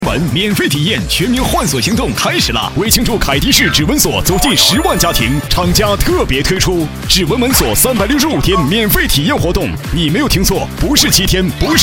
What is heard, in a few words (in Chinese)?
男 电视销售 指纹锁; 凯迪仕指纹锁